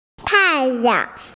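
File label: "sun", Mandarin Chinese, female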